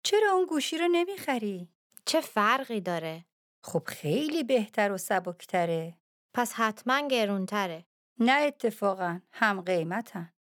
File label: Dialogue lesson Farsi